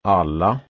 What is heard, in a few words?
"alla", Proto-Germanic